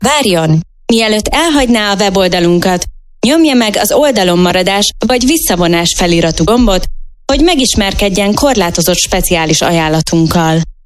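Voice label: IVR Hungarian Dry; J Factory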